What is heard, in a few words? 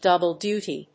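"double duty", English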